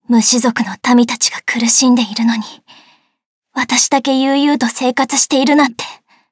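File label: start, Japanese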